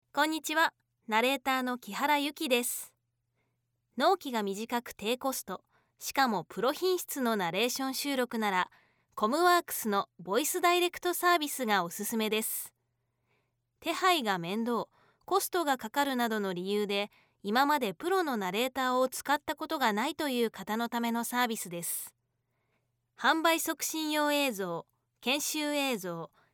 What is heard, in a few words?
narration woman; 標準